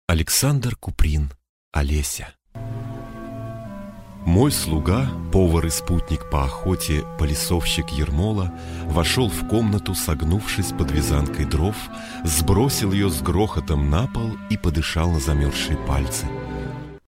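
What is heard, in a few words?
audiobook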